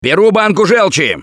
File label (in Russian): boomer jar, left4dead2 russian, Survivor Voice; Gambler